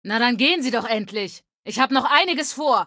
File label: Female adult goodbye